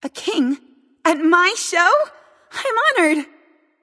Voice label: Taunt Directed; Pop Punk Hel; King Arthur; Smite